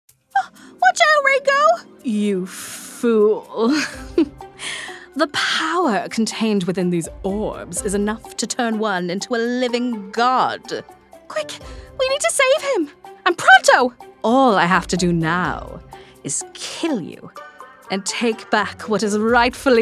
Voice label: Animation, voice